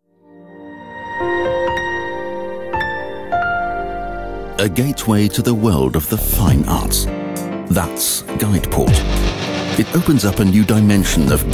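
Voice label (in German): British English, Dynamisch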